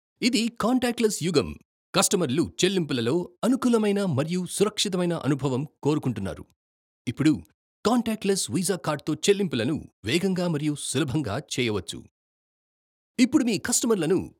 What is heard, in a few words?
Voiceover, ads; IOCL